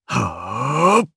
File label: Casting, jp; Kibera; kingsraid